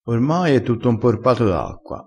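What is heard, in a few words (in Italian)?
"imporpa", crespinese dialettale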